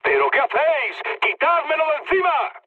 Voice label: aircraft damaged, mood med, speech; War Thunder

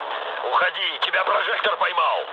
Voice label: searchlite, mood low, speech ru; War Thunder

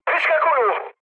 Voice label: bailout, speech cze, mood low; War Thunder

